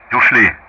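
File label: "away", Russian; DCS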